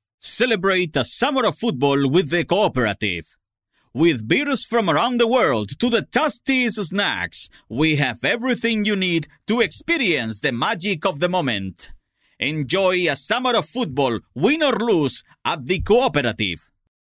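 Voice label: Spanish Spain male voice over, English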